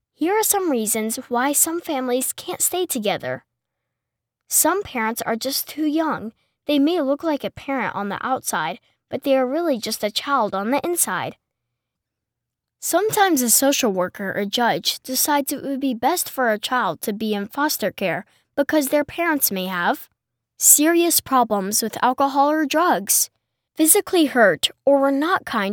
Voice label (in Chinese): 美式童声男 讲述